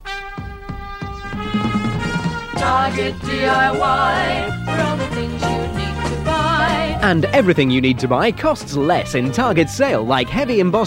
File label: Radio Advert; Radio Hallam; Target DIY Sale